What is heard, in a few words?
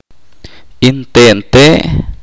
"pocos", chorti